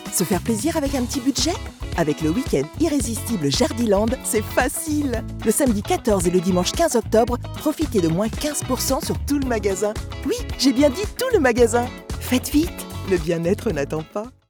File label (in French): pub radio locale jardinerie, voix off; Promotion jardinerie – week-end spécial (14 s); sourire, proche de l'auditeur